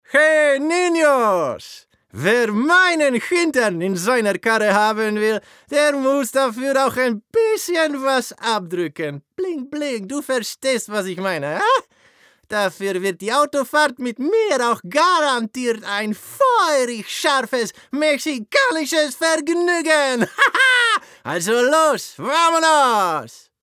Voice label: DE mobile app mexican accent